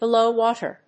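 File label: "below water", English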